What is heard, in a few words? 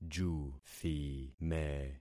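"juthime", concatenated syllables; Affixing experiment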